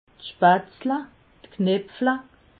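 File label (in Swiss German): "spatzle", Alsatian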